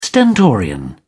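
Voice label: "stentorian", British English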